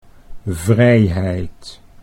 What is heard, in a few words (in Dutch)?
"vrijheid", Dutch